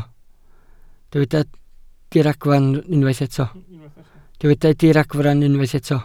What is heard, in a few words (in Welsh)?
"diragfarn" Welsh